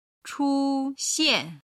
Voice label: "chu1xian4", Chinese

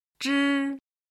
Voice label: "zhi1", chinese

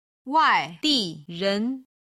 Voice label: "waidiren", Chinese

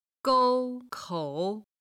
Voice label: "gou kou", chinese

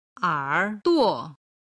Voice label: "er3duo5", Chinese

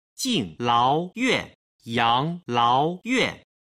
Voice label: "jinglaoyuan yanglaoyuan", Chinese

